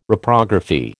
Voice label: "Reprography", American